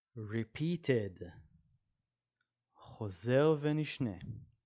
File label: "repeated" – "חוזר ונשנה"